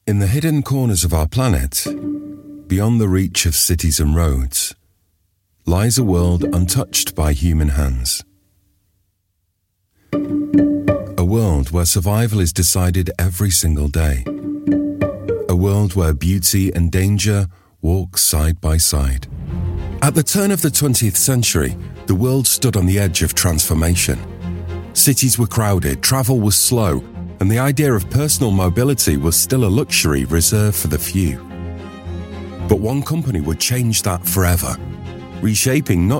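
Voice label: narration, Gravitas Warm Trustworthy Voice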